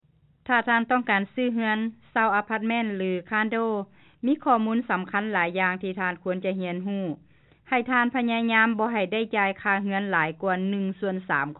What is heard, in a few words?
lao housing